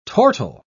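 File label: "tortile", English, British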